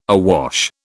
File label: "awash", British